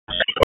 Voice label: Raleigh Police Northeast District Tac, p25; wake

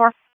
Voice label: Raleigh Police Northeast District Tac, p25; wake p25

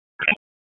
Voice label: Police Northwest District Tac 1

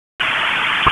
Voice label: Wake Forest Tac 3, P25 recordings

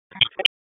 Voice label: Police North District Tac 1, p25; Raleigh; wake

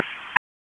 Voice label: Police Spec Ops Tac 4, p25; Raleigh; Spec Ops Tac 12; wake

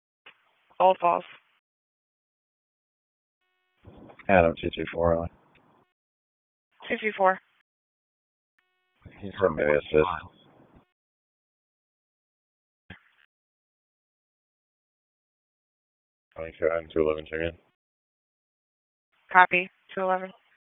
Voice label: Police Northeast District Disp, p25; Raleigh

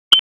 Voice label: FIRE OPS, p25; wake

